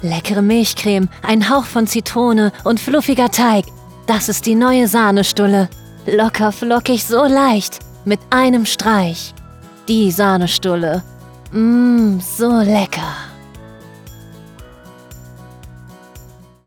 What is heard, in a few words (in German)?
Sprachprobe Werbung